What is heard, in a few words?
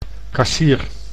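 "kassier", Dutch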